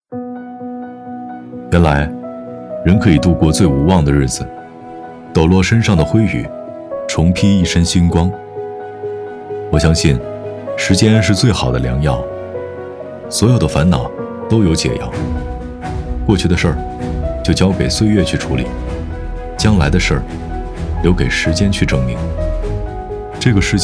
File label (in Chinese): 独白